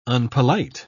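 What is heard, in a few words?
"unpolite", British English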